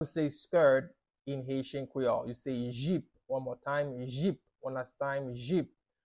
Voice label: Skirt in Haitian Creole Jip pronunciation by a Haitian Creole teacher